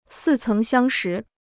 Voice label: "似曾相识", Chinese